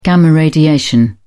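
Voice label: "gamma radiation", English, British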